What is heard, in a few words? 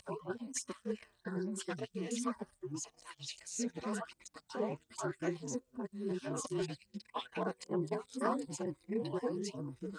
woman speaking synth